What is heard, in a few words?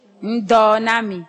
good afternoon, ewe